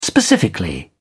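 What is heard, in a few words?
"specifically", British English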